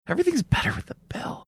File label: quietly saying everythings better with the bell